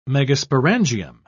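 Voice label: "megasporangium", British English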